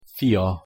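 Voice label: "fia", Hungarian pronunciation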